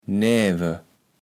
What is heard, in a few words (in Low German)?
"näwe", kaevels platt